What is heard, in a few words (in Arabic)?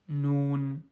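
"ن", Arabic; quiz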